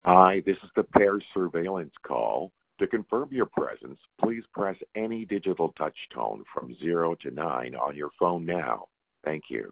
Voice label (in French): Telephone Message d ouverture Anglais; Jeffery Hale